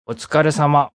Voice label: See You Japanes, Male